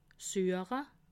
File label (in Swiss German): "sueche", alsace